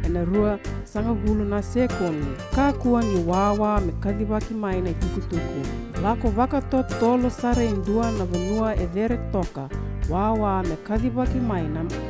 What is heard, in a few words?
earthquake tsunami Fijian; DHS; TEMCO